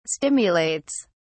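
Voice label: "stimulates", British English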